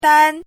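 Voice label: "dān", Mandarin Chinese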